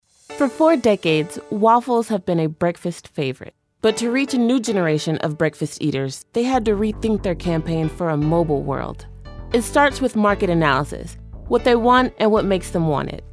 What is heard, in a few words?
Narration